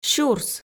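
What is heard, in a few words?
"сюрс", Komi, female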